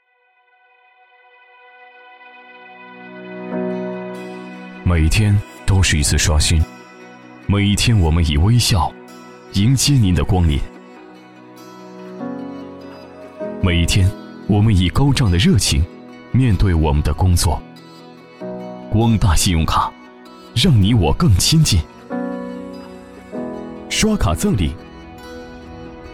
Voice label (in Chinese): 男 独白 自述 磁性 低沉 信用卡; 光大信用卡